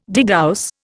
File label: "degauss", Indian